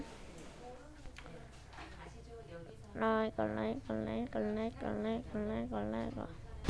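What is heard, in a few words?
"neck", spoken word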